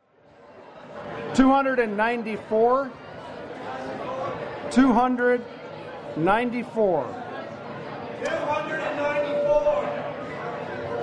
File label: announce hymn; 2015Conference